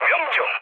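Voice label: Korean bomb success mood low, speech; War Thunder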